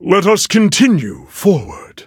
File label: victory, en